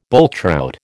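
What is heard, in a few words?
"bulltrout", American English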